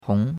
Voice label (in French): "hong2", chinois; Cours-de-Chinois